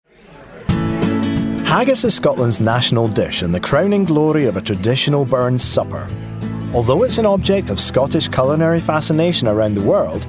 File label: Narrative Mix Voice